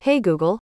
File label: "hey g", us female